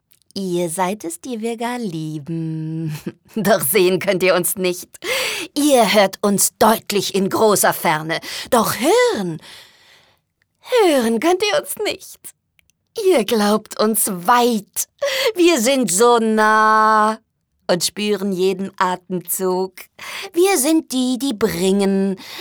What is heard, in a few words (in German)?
psycho, frauen; Sprecher